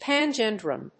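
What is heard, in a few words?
"panjandrum", English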